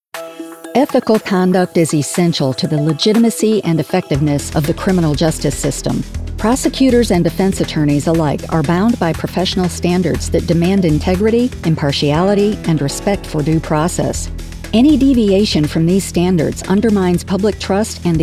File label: Legal Narr CRIMINAL LAW